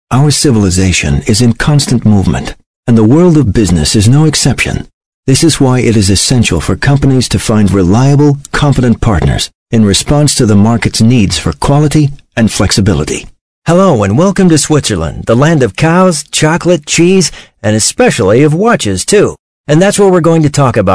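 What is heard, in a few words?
English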